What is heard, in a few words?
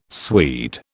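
"Swede", British English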